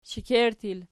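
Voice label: "shekerttu" plural, Archi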